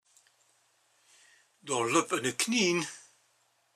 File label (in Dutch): limburgs